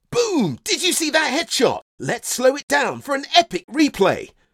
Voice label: Gaming Excited and Immersive For Gameplay, voiceover